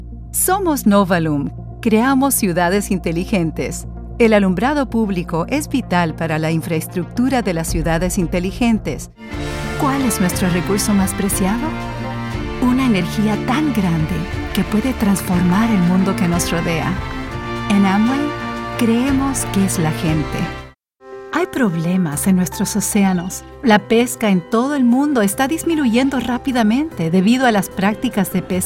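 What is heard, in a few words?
Corporate Narration Spanish, voiceover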